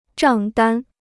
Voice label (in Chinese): "账单", Chinese; 账单 (zhàng dān): bill